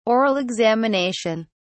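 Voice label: "oral examination", British English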